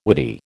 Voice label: "whity", American